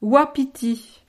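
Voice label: "wapiti", French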